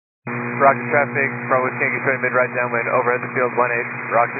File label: 122 725MHz, scanner radio